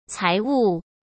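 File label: "财物", Mandarin Chinese; chinese-flashcards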